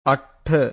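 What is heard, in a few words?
"attha", Pali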